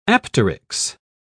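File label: "apteryx", British English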